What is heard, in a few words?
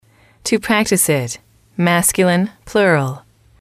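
"to practice it", English, female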